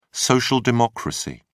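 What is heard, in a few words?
"social democracy", British English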